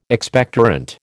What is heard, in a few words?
"Expectorant", American